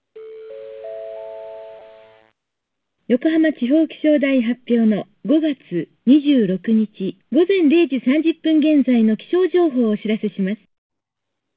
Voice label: hsdpa pcmu